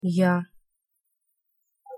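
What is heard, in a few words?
"я", Russian pronunciation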